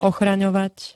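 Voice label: "ochraňovať", Slovak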